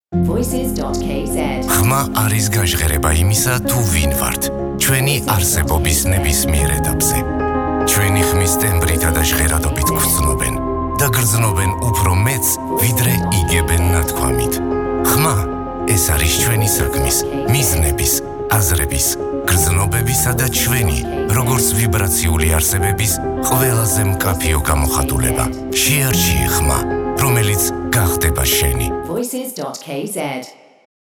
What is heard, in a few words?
man